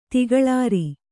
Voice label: "ತಿಗಳಾರಿ", Kannada